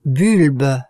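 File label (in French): "bulbe" French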